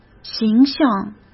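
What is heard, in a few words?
"形象", Mandarin Chinese; chinese flashcards; hsk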